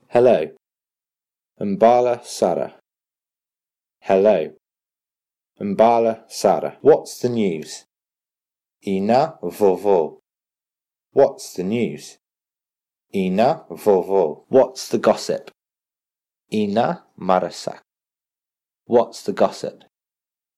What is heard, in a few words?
Speak Malagasy